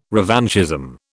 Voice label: "revanchism", British